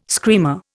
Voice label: "screamer", Indian